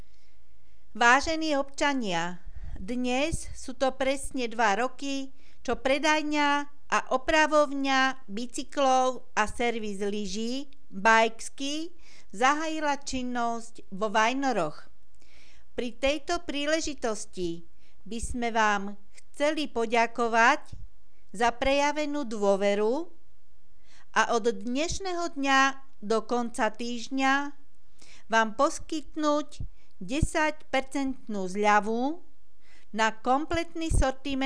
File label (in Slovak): Hlásenie miestneho rozhlasu; bikeski komercny oznam; Vajnorský širáček,Tvorivé dielne pre deti